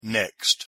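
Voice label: "next", English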